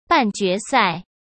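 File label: "半决赛", Mandarin Chinese; hsk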